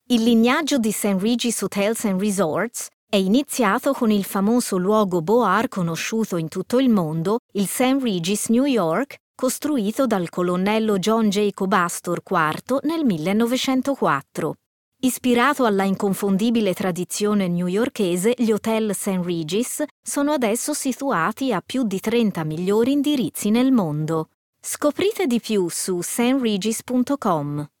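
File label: Italian IVR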